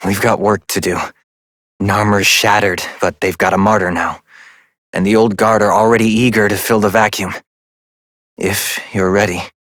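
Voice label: Voice, English; New War; Epilogue; Operator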